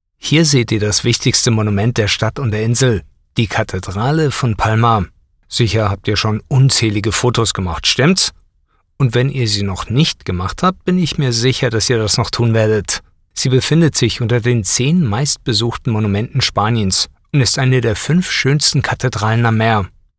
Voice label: Audio Guide Conversational